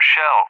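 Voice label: "Shell", English, UK; CallSign; DCS; Allied Flight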